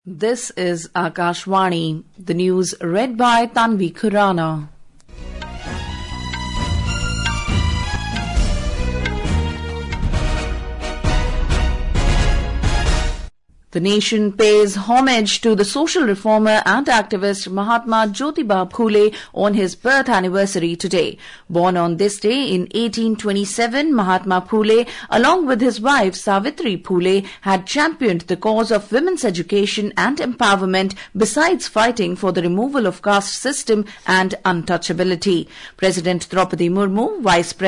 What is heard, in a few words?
English; Larsen and Toubro Limited for acquisition of 3 cadet training ships